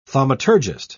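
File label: "thaumaturgist", British English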